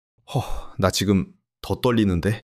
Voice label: fluster

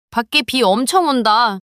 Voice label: neutral

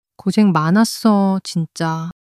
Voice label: sadness

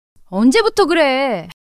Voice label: fluster

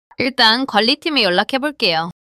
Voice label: neutral

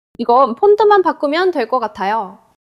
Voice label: neutral

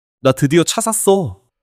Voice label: joy

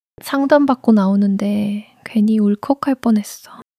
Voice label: sadness

